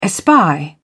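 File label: "espy", British English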